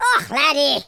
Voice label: oh dear, Scotsman; speech

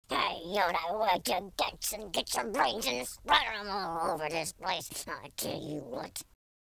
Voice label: angry; speech

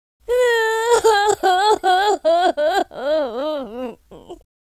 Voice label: sad, Gangsta; speech

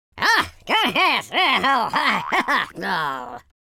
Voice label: taunt, Viking; speech

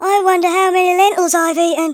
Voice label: boring, speech, Hippy

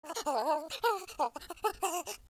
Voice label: scared, Russki

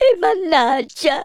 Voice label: oh dear, Italian

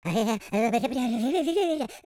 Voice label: scared, Footy Fan speech

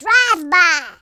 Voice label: "incoming", Gangsta; speech

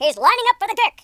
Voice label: "watch this", US Sports speech

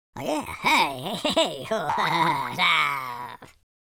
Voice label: taunt, speech, Super Hero

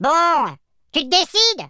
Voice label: hello, French; speech

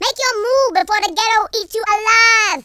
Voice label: "hurry", Gangsta; speech

